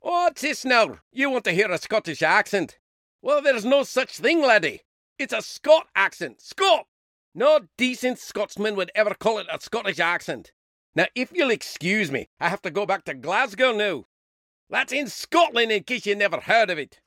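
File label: Scottish, Accents & Dialects; Voice, Acting & Singing samples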